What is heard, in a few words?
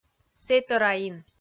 "сэтӧраин", Komi